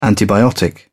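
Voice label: "antibiotic", English, British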